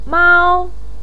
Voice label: "miao1", Chinese pinyin voice